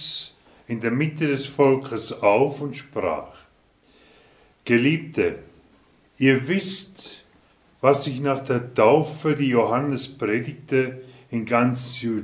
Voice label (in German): Lesung Ostern